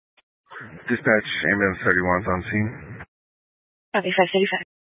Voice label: Fire Department Dispatch; Grand Junction